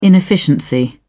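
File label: "inefficiency", British English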